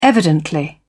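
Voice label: "evidently", British English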